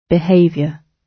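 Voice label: "behaviour", British English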